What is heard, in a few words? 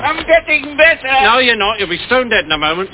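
"Better", speech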